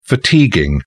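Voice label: "fatiguing", British English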